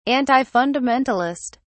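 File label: "antifundamentalist", British English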